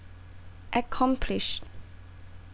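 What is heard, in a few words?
"accomplish", Thai, female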